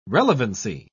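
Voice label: "relevancy", British English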